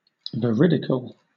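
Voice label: "veridical", British English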